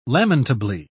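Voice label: "lamentably", British English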